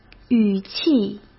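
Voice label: "语气", Mandarin Chinese; chinese flashcards; hsk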